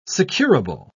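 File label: "securable", British English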